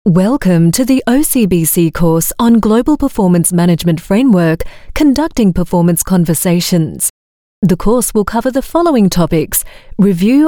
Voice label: AU MidAtlantic English Training, Australian Female; OCBC